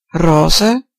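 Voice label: pink, Serbian; gcompris-data; colors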